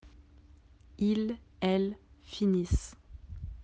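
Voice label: "finir", French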